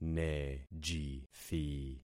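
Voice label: "nejithi", concatenated syllables; Affixing experiment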